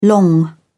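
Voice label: "long", British English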